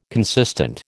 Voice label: "consistent", American

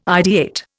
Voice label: "ideate", Indian

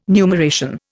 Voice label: "numeration", Indian

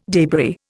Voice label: "debris", Indian

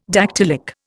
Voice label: "dactylic", Indian

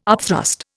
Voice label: "upthrust", Indian

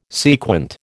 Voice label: "sequent", American

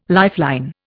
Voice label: "lifeline", Indian

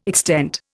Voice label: "extent", Indian

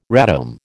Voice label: "radome", American

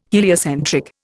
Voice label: "heliocentric", Indian

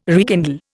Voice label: "rekindle", Indian

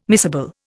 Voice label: "miscible", Indian

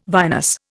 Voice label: "vinous", Indian

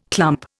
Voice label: "clump", Indian

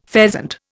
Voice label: "pheasant", Indian; Dictionary

